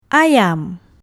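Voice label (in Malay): "ayam", Malay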